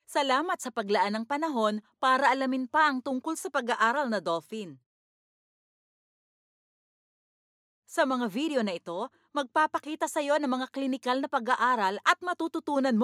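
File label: tagalog interactive voice response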